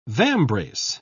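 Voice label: "vambrace", British English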